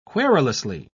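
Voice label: "querulously", British English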